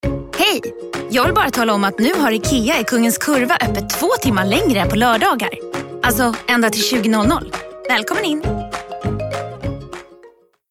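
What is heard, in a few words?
Swedish, female, reklam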